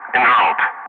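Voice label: "enroute", English; Ka-50; Wingman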